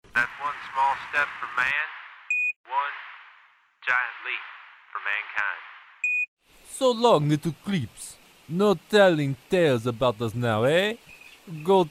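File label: US English, Characters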